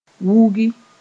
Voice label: "wũgi", moore; be crouched

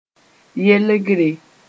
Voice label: "yelgre", moore

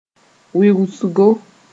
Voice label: "wɩʋʋsgo", moore

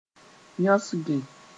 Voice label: "yõsge", moore

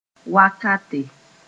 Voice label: "wakate", Mooré; moment

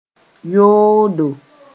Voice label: "yoodo", moore; bad

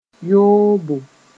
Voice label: "yoobo", moore; committing adultery

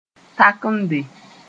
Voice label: "takemde", moore

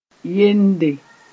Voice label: "yende", moore

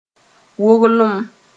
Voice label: "woglem", moore

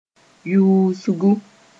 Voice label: "yuusgu", moore

